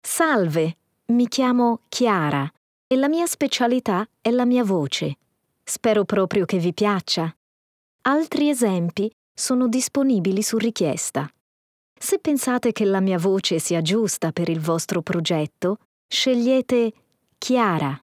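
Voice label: Italian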